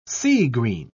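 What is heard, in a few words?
"sea-green", English, British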